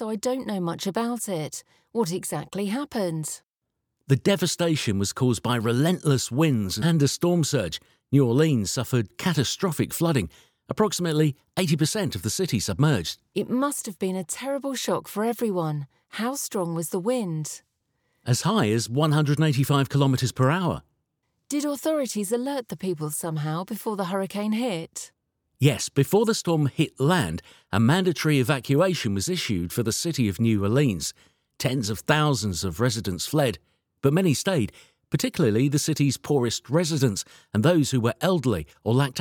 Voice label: Natural Disasters Dialogue; szobeli